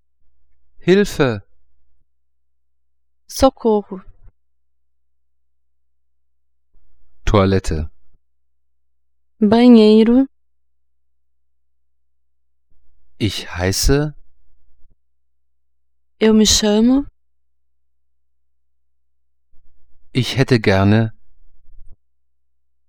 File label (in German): brasilianisch